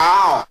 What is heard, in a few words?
ow male